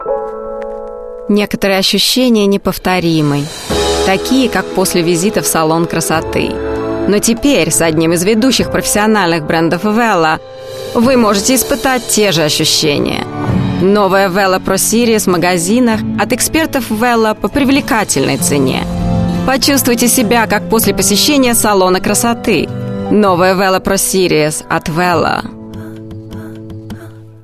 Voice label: Russian, Female, 30s-50s; Eastern European